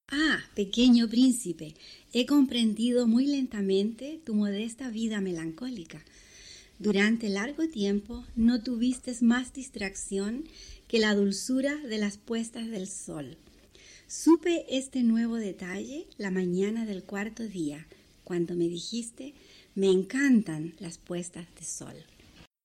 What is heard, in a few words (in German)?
Spanisch El Melon